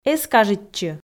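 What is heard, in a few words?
"эз кажитчы", Komi, female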